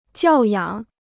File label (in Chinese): "教养", Chinese